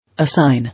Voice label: "Assign", English